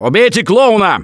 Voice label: see clowns, left4dead2 russian, Survivor Voice; Gambler

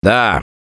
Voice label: Yes, left4dead2 russian, Survivor Voice; Gambler

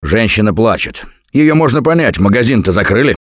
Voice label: heard witch, left4dead2 russian, Survivor Voice; Gambler

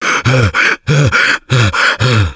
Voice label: defibrillator, left4dead2 russian, Survivor Voice

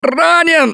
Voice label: Incapacitated Initial, left4dead2 russian, Survivor Voice; Gambler

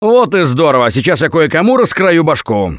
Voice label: take bat, left4dead2 russian, Survivor Voice; Gambler

